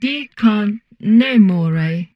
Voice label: humanoid portrait female; Stellaris; humanoids